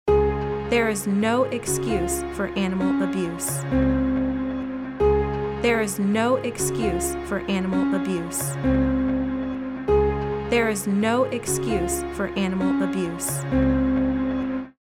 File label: No Excuse Ringtone; PETA